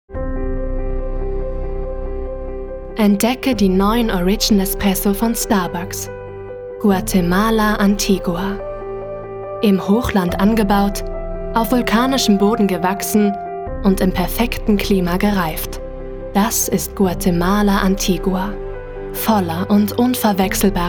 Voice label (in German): Werbung